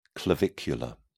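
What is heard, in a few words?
"clavicular", English, uk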